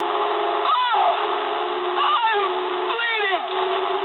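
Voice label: damaged pilot mood medium, speech English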